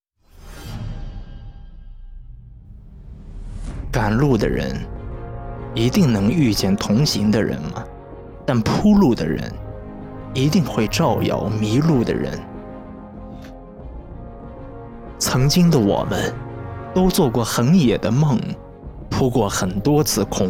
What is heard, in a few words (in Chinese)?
综艺配音